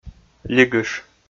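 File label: "лигыш", Komi, male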